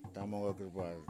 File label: seven, yimas